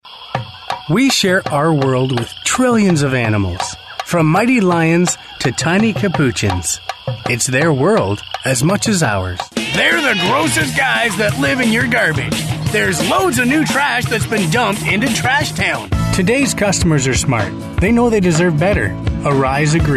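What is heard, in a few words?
US English, male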